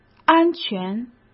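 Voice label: "安全", Mandarin Chinese; chinese-flashcards; hsk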